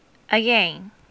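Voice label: "again", Japanese female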